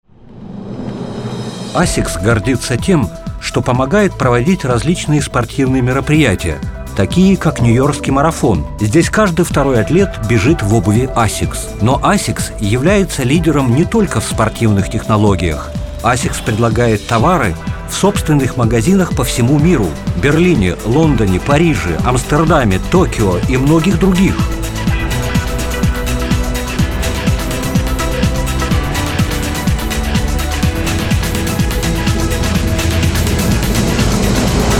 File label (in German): ru imagefilm, sprecher russisch